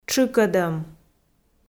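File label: "тшыкӧдӧм", Komi, female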